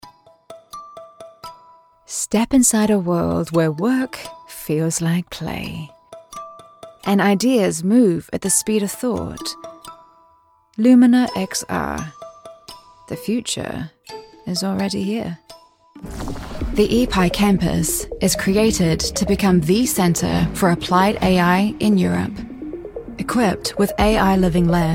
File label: english british corporate videos, new zealand english